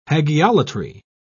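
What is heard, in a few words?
"hagiolatry", British English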